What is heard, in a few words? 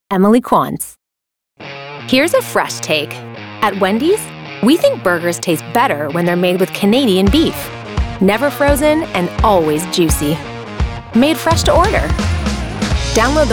Commercial